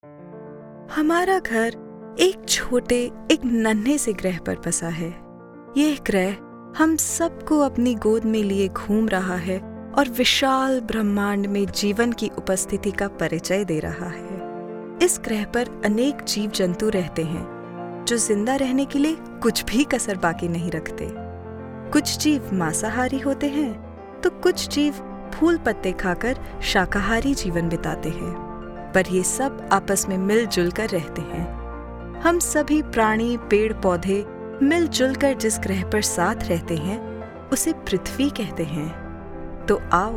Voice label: Narration Hindi